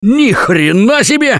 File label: reaction negative, russian; Gambler